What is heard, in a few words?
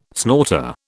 "snorter", British